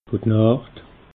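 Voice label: "guet nacht", Alsatian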